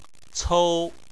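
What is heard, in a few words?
"cou" first tone, Chinese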